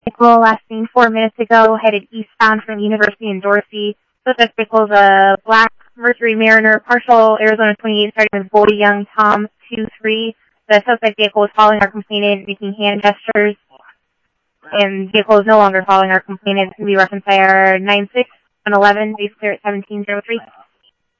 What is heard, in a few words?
Tempe Police, scanner